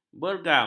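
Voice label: "bergam", Malay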